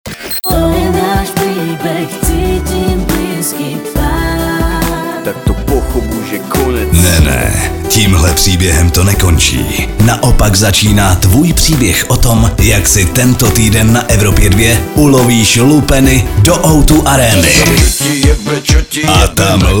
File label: liner; evropa2